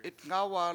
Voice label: country land, Washo

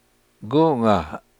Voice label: "Where", Washo; gunga

